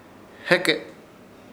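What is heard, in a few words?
"Heke", Chamacoco word, por eso; Ishir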